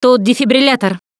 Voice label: defibrillator, russian; Producer

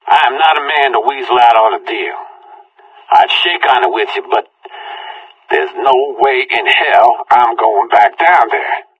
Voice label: conversation; Left 4 Dead 2

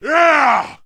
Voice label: man victory; Mount&Blade Warband

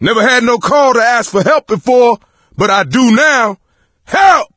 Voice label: call for rescue, voice; Left 4 Dead 2; coach

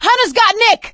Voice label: hunter pounced, survivor voice; Left 4 Dead 2; producer

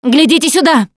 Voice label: look here, russian; Producer

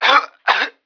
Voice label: choke dying

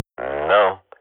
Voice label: radio bot reponse negative, Counter-Strike swat

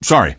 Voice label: "sorry", male; npc; Garry's Mod; hl2

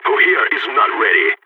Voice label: radio lock n load, balkan; Counter-Strike Global Offensive

